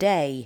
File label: "day" english